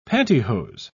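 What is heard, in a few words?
"pantihose", British English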